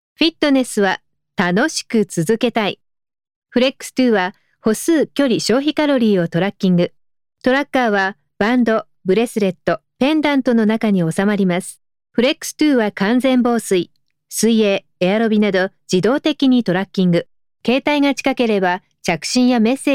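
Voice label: Japanese, female, commercial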